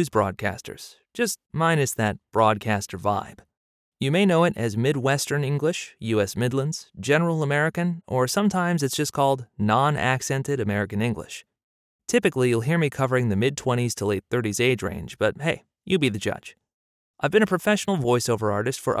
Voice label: Dry Studio Sample